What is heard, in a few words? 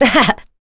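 Vox Happy, voices heroes English; kingsraid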